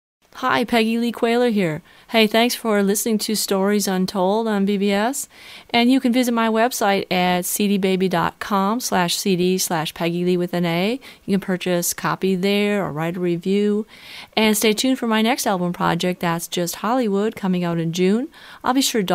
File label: bbs radio promo